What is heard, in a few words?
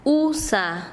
Chanka, quechua; piojo